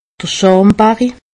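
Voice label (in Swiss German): "schammbarri", Haut Rhin; medecine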